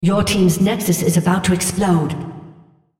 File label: female; Odin; Nexus Misc